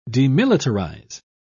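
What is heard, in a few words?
"demilitarise", British English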